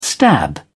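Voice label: "stab", British English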